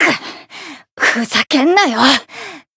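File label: retire, Japanese; kid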